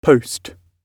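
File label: "post", British English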